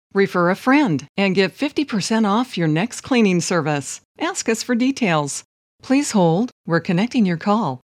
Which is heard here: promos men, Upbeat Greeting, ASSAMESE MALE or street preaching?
Upbeat Greeting